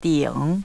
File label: "ding", chinese pronunciation; 鼎; tripod food vessel; di-ing